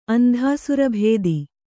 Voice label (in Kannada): "ಅಂಧಾಸುರಭೇದಿ", Kannada; Andhāsurabhēdi; noun